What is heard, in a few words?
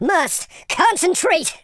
"oh dear", super hero speech